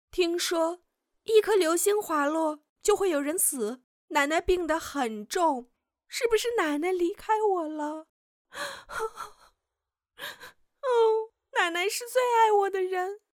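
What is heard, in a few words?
chinese mandarin female character; voice demos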